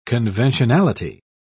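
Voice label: "conventionality", British English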